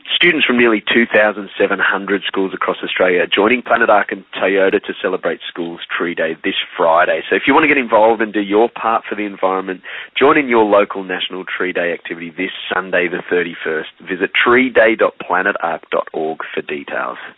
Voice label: radio grab